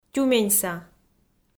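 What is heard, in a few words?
"тюменьса", Komi, female; audio dict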